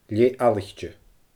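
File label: "leth fhalaichte", Scottish Gaelic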